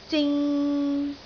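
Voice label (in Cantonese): "zing3", Cantonese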